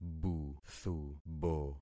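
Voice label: "buthubo", concatenated syllables; Affixing experiment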